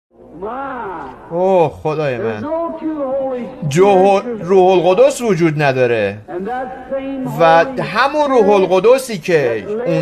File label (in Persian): Farsi Voice Over; Deep Calleth unto the deep